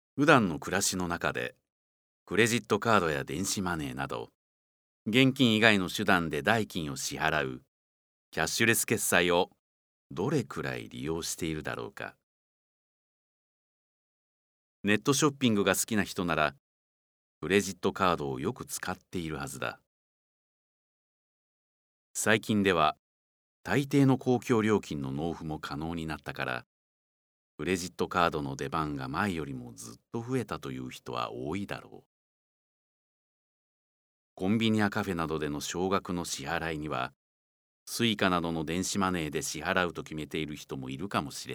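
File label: narration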